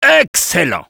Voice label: positive vocalization, fr; "Excellent."; Spy